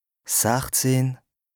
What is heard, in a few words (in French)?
"sechzëh", lexique indispensable bas rhin, Alsace; 16; D'Zàhle